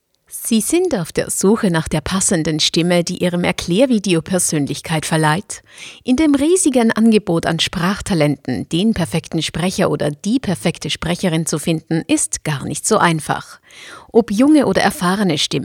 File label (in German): ERKLAERVIDEO